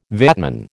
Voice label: "VATman", American